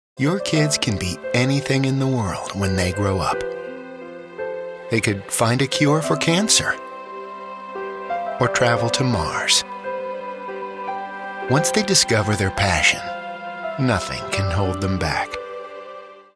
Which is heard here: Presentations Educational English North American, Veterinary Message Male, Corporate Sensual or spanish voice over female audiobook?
Presentations Educational English North American